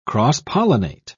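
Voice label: "cross-pollinate", British English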